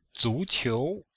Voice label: "zu2qiu2", chinois; Cours de Chinois

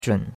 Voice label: "zhun3", chinois; Cours-de-Chinois

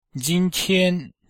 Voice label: "jin1 tian1", Chinese

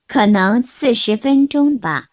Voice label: Mandarin Conversation

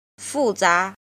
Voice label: "jin", Chinese

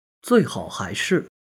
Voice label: "zui4 hao4 hai2 shi4", Chinese

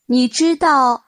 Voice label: Mandarin Conversation

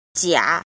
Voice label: "jin", Chinese

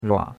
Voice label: "rua3", chinois; Cours de Chinois

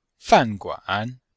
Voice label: fan4 guan3, chinois

